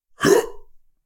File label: guard male; SyrinScape; Waterdeep